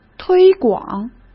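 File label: "推广", Mandarin Chinese; hsk; chinese-flashcards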